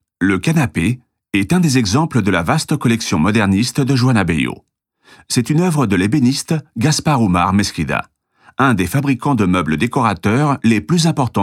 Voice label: audioguia french